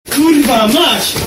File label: angry polish kid